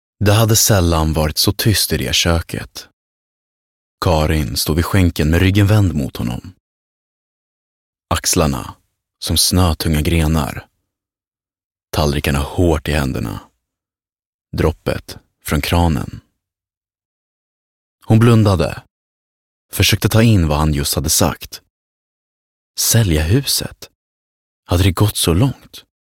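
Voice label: Swedish Audiobook, Deep, warm and naturally engaging Swedish male voice; Swedish Audiobook Narration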